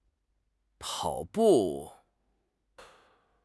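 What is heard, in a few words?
"pao3 bu4", Chinese; HSK; HSK2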